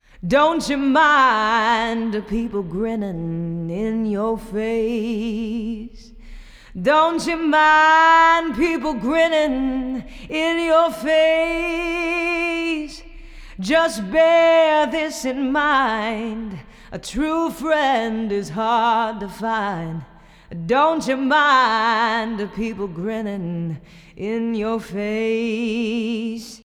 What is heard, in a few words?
vox mic no eq